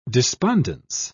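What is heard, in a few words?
"despondence", British English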